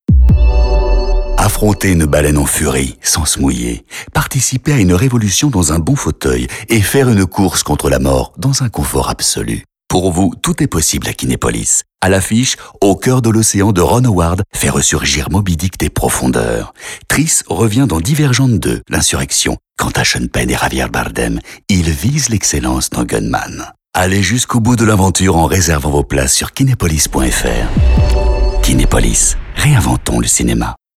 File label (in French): PUB, voix; KINEPOLIS FILM